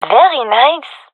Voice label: compliment, fem; vo; gendermerie fem epic